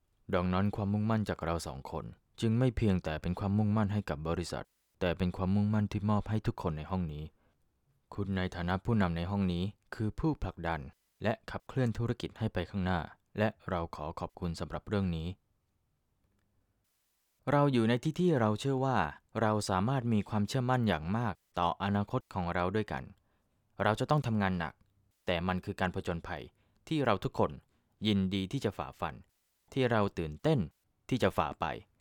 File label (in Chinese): 泰语男, 叙述